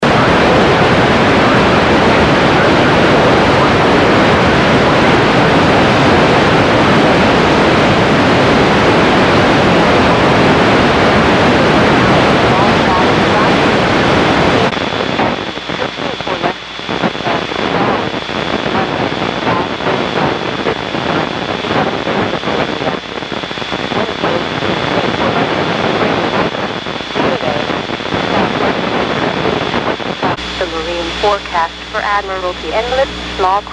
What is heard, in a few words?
WX Channel 2; CCP vs DT400W vs CCSW